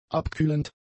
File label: "abkühlend", German; adjectives